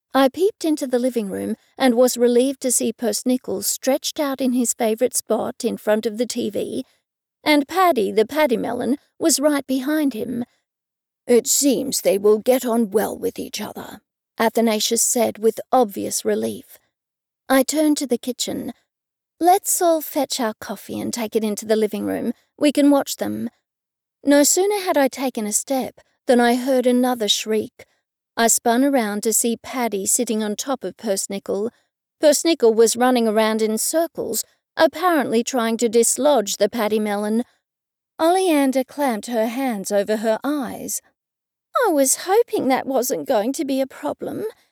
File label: Narration Sample